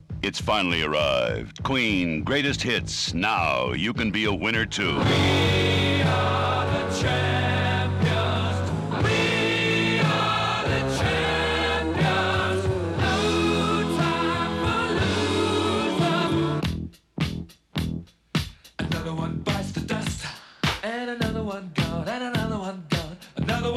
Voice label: Radio Commercials